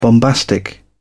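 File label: "bombastic", British English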